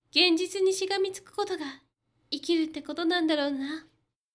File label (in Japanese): josei genzitunishigamitukukotogaikiruttekotonandarouna; 女性 「現実にしがみつくことが、生きるってことなんだろうな。」; 高音; ボイス